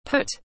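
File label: "Put", English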